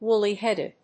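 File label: "woolly-headed", English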